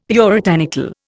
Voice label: "puritanical", Indian; Dictionary